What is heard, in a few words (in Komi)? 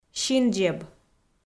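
"синдзеб", Komi, female; kpv audio dict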